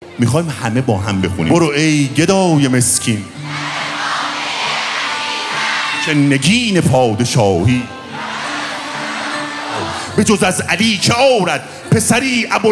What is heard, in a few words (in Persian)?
شعرخوانی